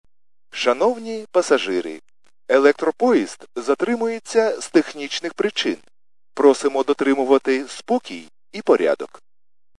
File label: khs st male, subway station